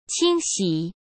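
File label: "清洗", Mandarin Chinese; chinese flashcards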